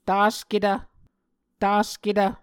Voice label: "tasketv", Muscogee